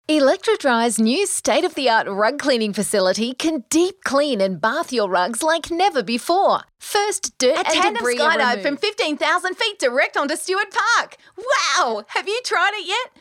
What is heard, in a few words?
Hard Sell